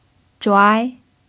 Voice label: "zhuai2", Mandarin pinyin

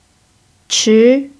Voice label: "chi2", Mandarin pinyin

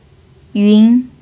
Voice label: "yun2", Mandarin pinyin

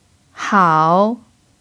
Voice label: "hao3", Mandarin pinyin

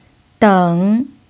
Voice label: "deng3", Mandarin pinyin

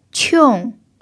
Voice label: "qiong4", mandarin pinyin

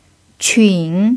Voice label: "qun3", mandarin pinyin

